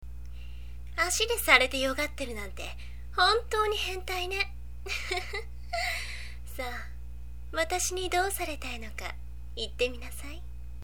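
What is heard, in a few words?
tsundere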